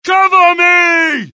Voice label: reload, US; dialogue; mohaa

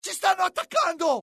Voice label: alert, dialogue IT; mohaa; attack

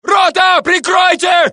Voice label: Russian; mohaa

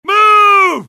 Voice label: suppress, dialogue generic US; mohaa

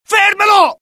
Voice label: alert, dialogue IT; mohaa; attack

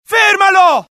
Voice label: alert, dialogue IT; mohaa; attack

